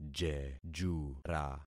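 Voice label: "jejura", concatenated syllables; Affixing experiment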